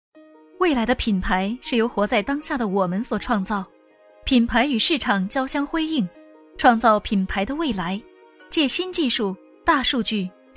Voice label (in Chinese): 品牌 宣传片 品牌